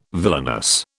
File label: "villainous", British